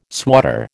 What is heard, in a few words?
"swatter", American